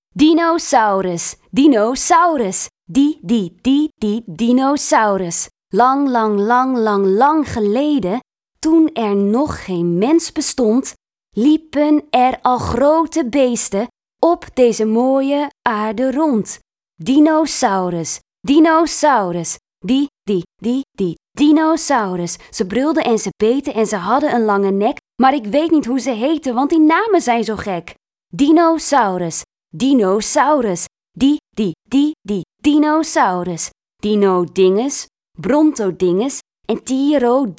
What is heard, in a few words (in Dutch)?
de dinosaurusrap Rap zonder muziek; taalsprong